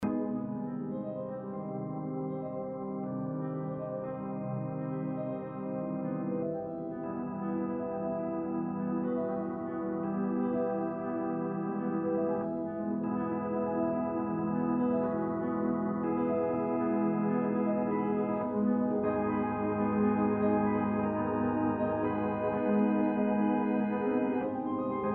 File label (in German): astrale symbol meditation